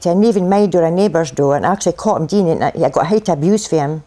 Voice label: in haten busen forn in baten busen, Voice gibbrish